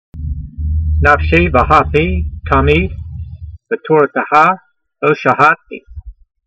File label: voice, Psalm 119:109, hebrew language; Transliteration: nafshee ve'hapee tameed, vetorateha lo sha'hah'tee